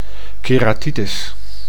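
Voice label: "keratitis", Dutch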